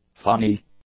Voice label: "funny", English; adjectives